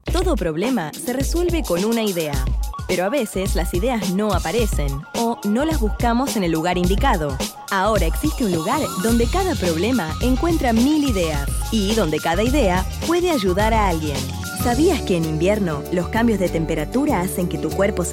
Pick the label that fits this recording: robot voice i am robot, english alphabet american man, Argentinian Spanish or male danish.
Argentinian Spanish